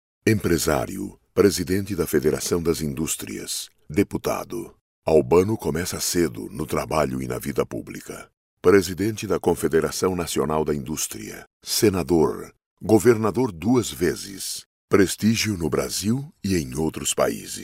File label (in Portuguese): locucao politica